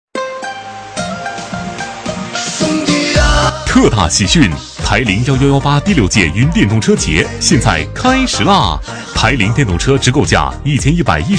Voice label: 男 促销, 促销男配; 台铃旗舰店

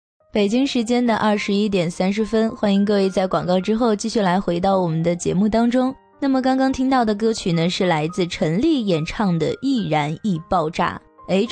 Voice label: 女 电台主持节目

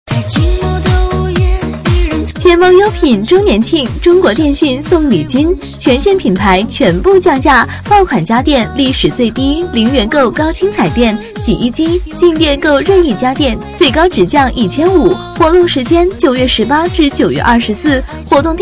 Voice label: 女 促销, 促销女配

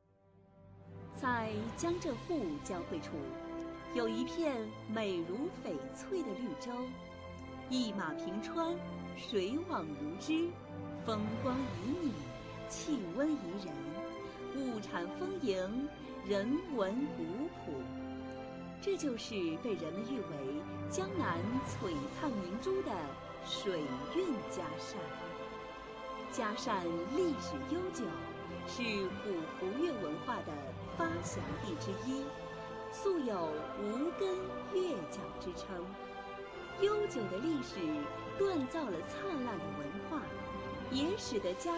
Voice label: 女 专题; 嘉善

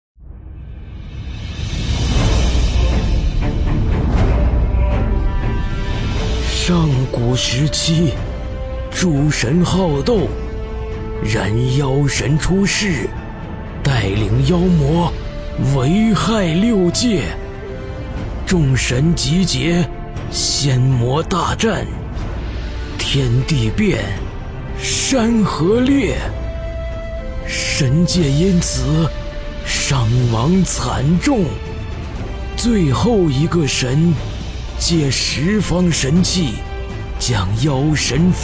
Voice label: 男66号角色 老人讲述

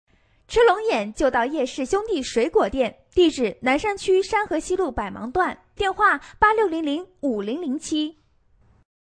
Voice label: 广告 水果店 欢快, 女

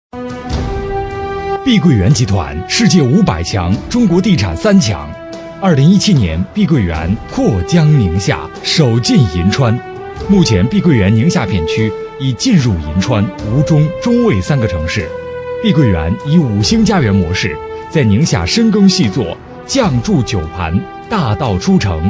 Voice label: 男 宣传; 碧桂园集团世界

